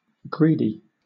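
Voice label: "greedy", British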